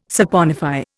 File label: "saponify", Indian